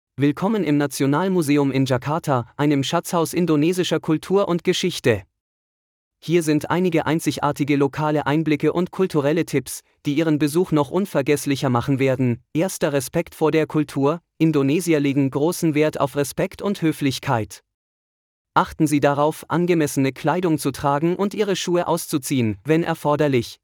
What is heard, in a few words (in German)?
local insights de; Das Nationalmuseum von Indonesien, das sich in Jakarta befindet; Das Nationalmuseum von Indonesien, das sich in Jakarta befindet, ist das größte Museum